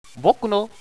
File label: window, radio bot